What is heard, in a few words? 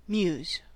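"muse", American English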